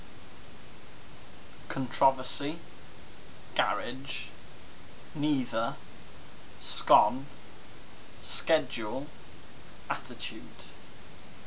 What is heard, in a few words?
newport gwent male